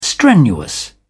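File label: "strenuous", English, British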